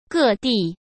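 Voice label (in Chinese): "各地", Mandarin Chinese; hsk; chinese flashcards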